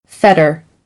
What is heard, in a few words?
"fetter", American